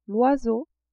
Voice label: "oiseau", French

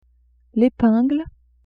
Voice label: "epingle", French; vetements

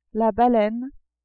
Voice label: "baleine", French

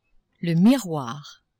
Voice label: "miroir", French; maison

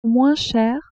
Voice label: "moins cher", French; vetements

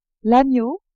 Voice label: "agneau", french

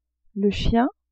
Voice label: "chien", French

